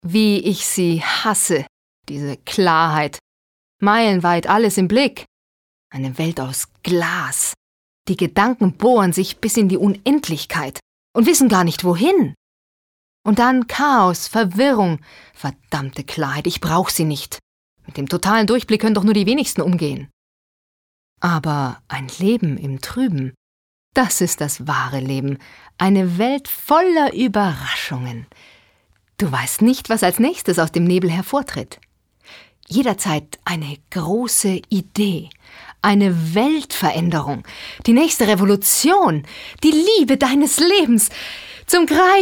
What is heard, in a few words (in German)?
Schauspiel Energisch